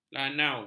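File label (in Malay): "lanau", Malay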